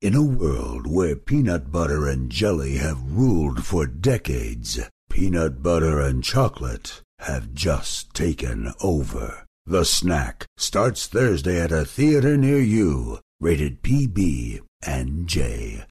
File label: Trailer